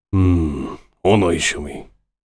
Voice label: warrior conversation emotion thinking

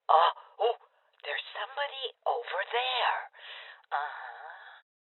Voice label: Voices Enemy Spotted Female Old; rebel cops 2019 gamerip

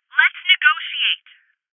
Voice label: Voices Postpone Female; Rebel Cops

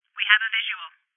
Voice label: Voices Enemy Spotted Female

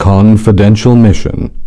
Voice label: voice advertise; confidential mission dreamcast redbook

